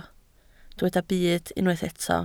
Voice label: "byd", Welsh

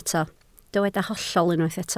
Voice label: "hollol", Welsh

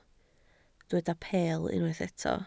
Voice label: "peel", Welsh

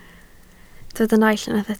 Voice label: "naill", Welsh

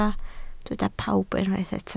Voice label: "pawb", Welsh

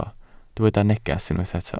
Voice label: "neges", Welsh

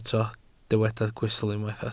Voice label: "gwystl", Welsh

